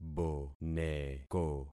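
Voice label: "boneko", concatenated syllables; Affixing experiment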